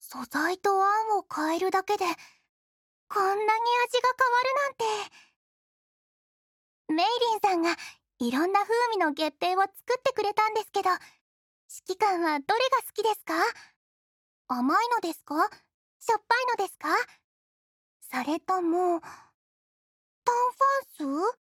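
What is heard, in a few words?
MidAutumn, Japanese; Lotta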